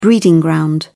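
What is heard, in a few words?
"breeding ground", British English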